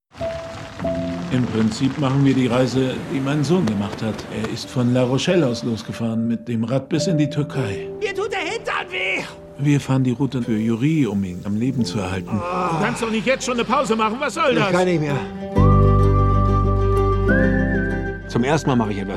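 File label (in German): Synchron, Männer; Auf zwei Raedern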